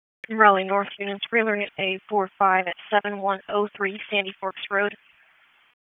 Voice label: Raleigh Police Northeast District Disp, P25 recordings; wake